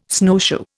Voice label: "snowshoe", Indian